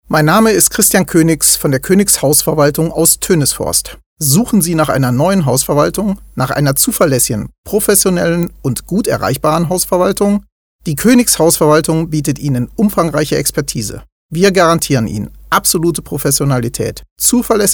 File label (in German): spot